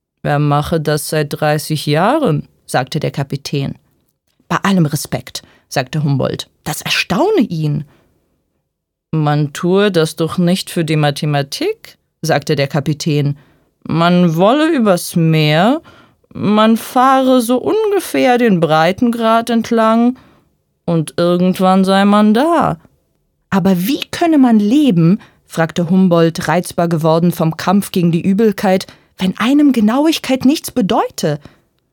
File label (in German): Hoerspiel